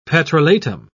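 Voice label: "petrolatum", English, British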